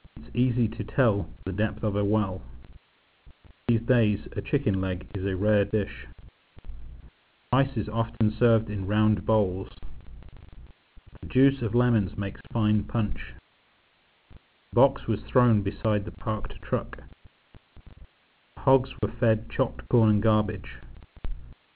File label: VAD clipping